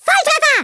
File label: PERFECT, Speech, German; Worms Armageddon